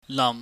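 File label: "lam", Cantonese, low falling tone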